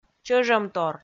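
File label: "чӧжӧмтор", Komi, female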